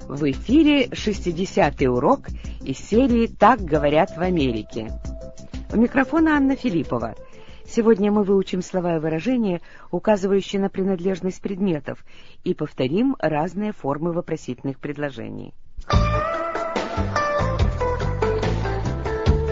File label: russian english